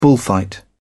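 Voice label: "bullfight", British English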